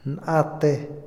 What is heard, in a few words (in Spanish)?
veinte, Otomí, hombre; INALI